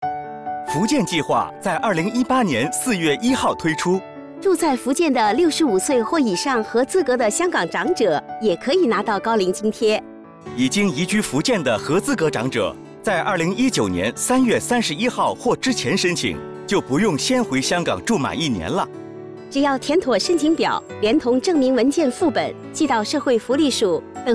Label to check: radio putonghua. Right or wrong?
Right